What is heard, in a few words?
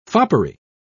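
"foppery", en, uk British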